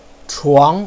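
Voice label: "chuang2", Mandarin Chinese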